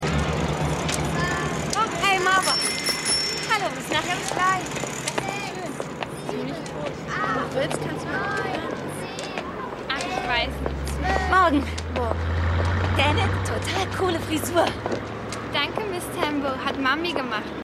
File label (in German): Kinder; Danette; AusgerechnetAlaska